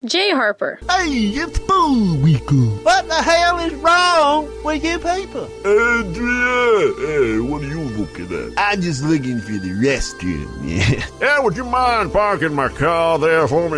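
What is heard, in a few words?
English US, character; GoLocalise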